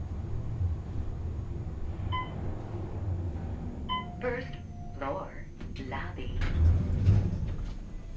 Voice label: most erotic elevator voice ever

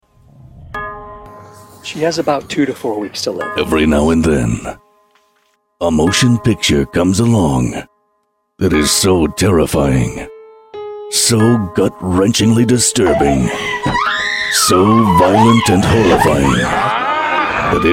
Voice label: vo trailers

